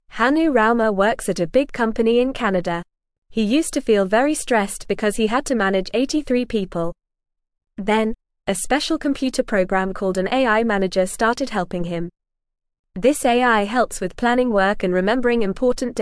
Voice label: English Newsroom Beginner FAST Reading; AI Helps Workers Feel Less Stressed at Work